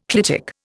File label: "clitic", Indian